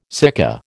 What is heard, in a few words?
"sika", American